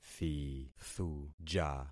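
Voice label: "thithuja", concatenated syllables; Affixing experiment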